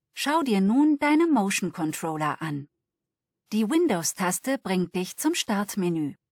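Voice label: windows button, German (Germany); retaildemo; Mixed Reality Learning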